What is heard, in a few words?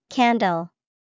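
candle, English vocabulary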